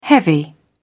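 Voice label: "heavy", British English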